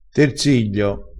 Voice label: "terziglio", crespinese

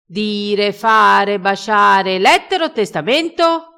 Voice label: "dire", crespinese, vocabolario dialettale

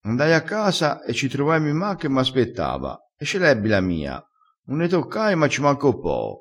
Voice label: "e ce l ebbi la mia", dialettale

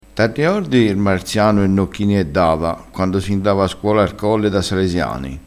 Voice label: "arriordassi", crespinese

